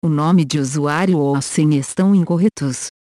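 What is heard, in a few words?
Username Or Password Incorrect, Portuguese; OpenCore; AXEFIAudio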